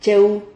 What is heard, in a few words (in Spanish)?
"cheu", Silabas